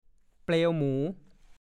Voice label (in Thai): "เปรี๊ยะ", ภาษาโคราช; เต็มที่ เมามาก